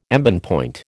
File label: "embonpoint", American